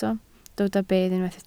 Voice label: "bedd", Welsh